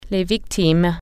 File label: "casualty", French